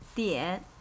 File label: "Dian", Chinese